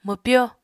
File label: "mwpyo", Apatani